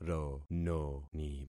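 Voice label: "rononi", concatenated syllables; Affixing experiment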